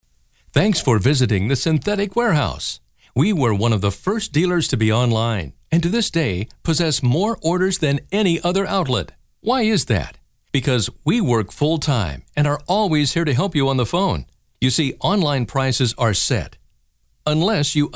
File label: Infomercial